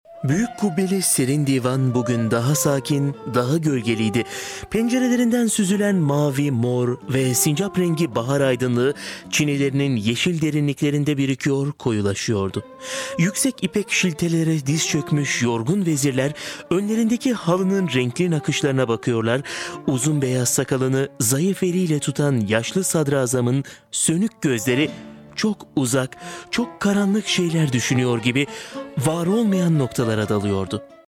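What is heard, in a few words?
tr, Turkish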